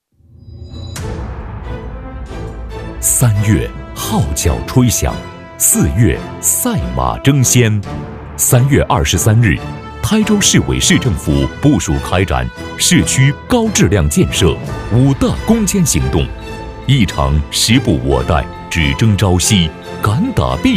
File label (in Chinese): 男, 宣传; 五大攻坚